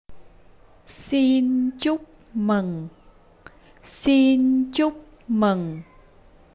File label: Congratulations, vietnamese; Appreciation